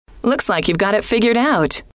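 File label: looks like you ve got it figured out, female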